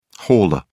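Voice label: "hauler", English, uk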